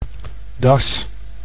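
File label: "das", Dutch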